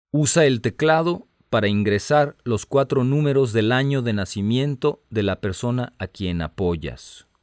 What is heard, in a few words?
Spanish, hotline